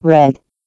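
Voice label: "red", English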